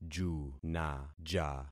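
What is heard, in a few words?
"junaja", concatenated syllables; Affixing experiment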